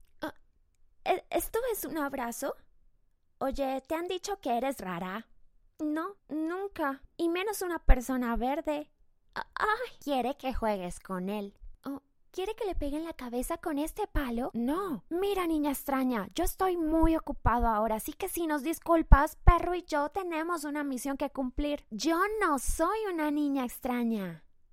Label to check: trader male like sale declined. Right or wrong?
Wrong